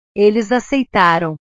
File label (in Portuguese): "eles aceitaram", portugues; verbos